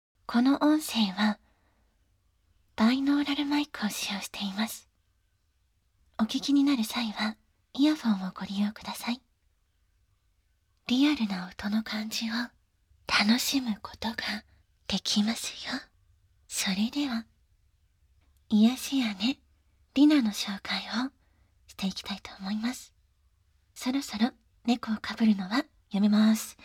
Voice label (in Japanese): PRボイス; 癒姉-梨菜; ディーブルスト